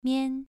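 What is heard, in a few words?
"mian", tone 2, pronunciation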